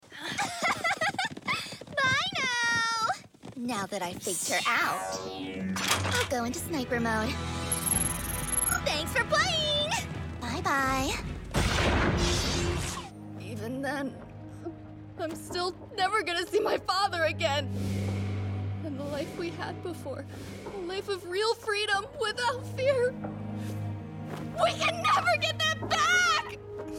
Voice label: Character